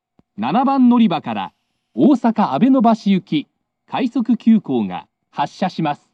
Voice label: rapid abenobashi, kintetsu announce; st announce; kashiharajingust